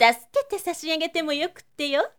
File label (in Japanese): zyosei tasuketesasiagetemo